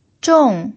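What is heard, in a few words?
"zhong" Mandarin, fourth tone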